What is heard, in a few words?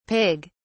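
"pig(s)", British English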